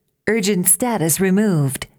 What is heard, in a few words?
urgent status removed english